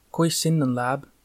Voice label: how are you, livonian

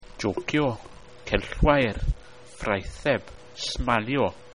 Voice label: "jocio", Welsh

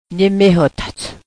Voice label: I love you, Cheyenne

